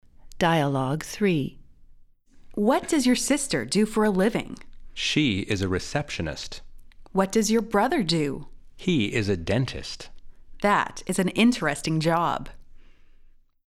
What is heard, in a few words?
Dialogue slow